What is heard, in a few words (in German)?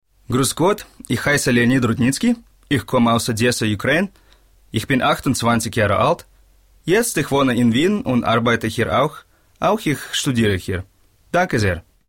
Akzent Russ